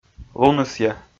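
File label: "лунысъя", Komi, male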